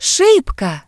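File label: window glass, Ukrainian; gcompris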